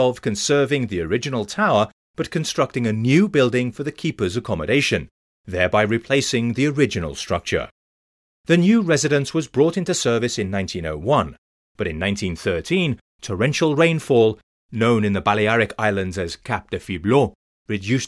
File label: lighthouse audioguide; en pou